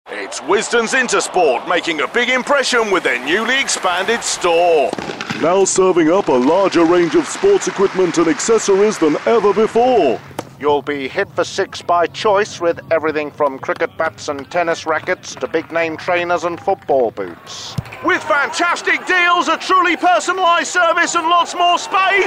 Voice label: commentators